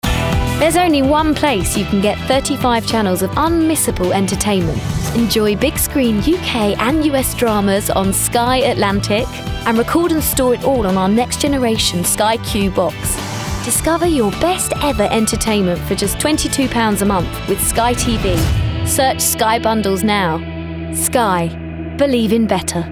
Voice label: COMMERCIAL; SkyTV